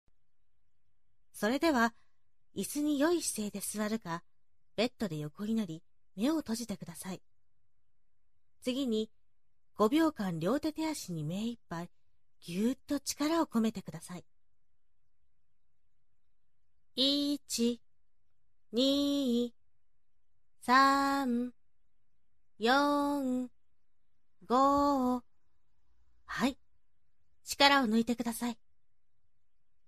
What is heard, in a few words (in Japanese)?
自律訓練法 ガイド音源